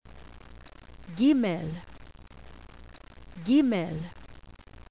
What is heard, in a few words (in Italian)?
"ghimel", Ebraico; qabalah; alfabeto